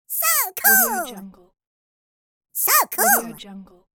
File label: cartoon cute so cool; applehillstudios